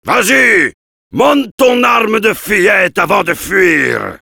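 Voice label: Heavy taunts, french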